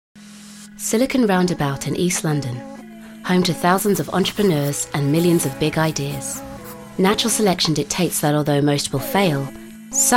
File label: English Uk